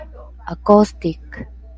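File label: "acoustic", JPN, female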